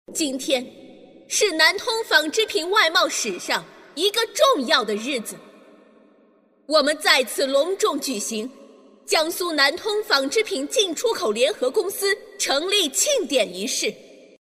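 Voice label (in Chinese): 女 模仿【训话 霸气】; 激情力度; 甄嬛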